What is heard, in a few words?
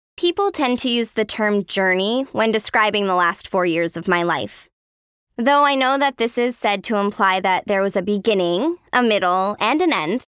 voice over demo